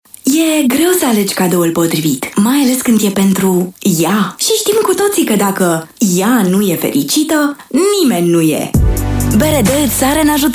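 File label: romanian female commercial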